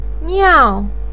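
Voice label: "niao", Mandarin pinyin, fourth tone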